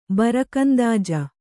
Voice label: "ಬರಕಂದಾಜ", kannada